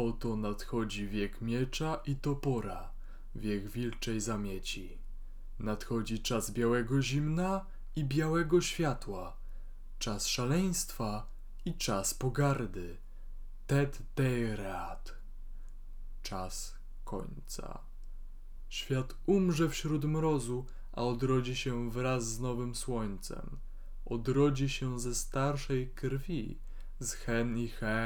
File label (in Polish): blue snowball ice, mikrofon